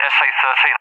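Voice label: "SA-3", English, UK; AirDefence; DCS; Allied Flight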